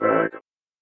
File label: VOICEFX ELCTR 140; Techno2